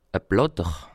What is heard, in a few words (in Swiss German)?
"platter", alsace